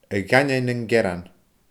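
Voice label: "a dhaindeoin nan gearan", Scottish Gaelic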